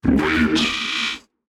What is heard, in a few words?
Automaton Alert Line; NPC; Heist Robot Spotted